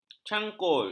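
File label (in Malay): "cangkul", Malay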